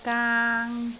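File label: "gaang3", Cantonese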